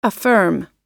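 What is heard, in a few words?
"affirm", American English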